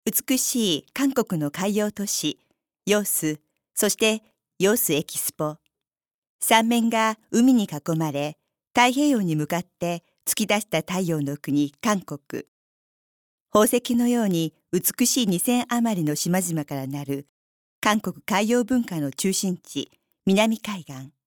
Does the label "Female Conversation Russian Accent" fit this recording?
No